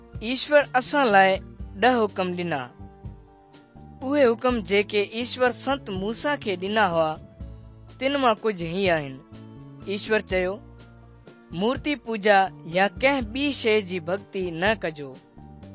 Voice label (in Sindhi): good news sindhi dukslinu, drama